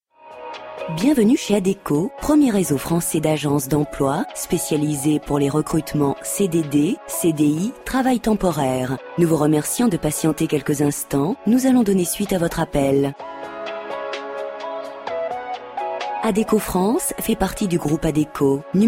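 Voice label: female French mix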